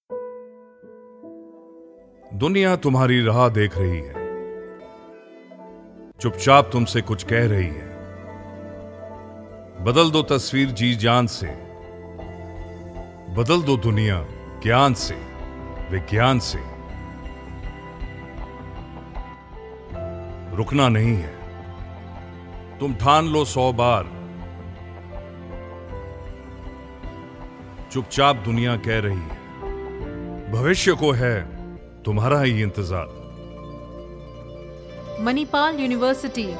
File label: promo hindi; manipal